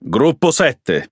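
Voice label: Italian speech Pilots Bomber; World Of Warships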